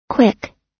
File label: "quick", us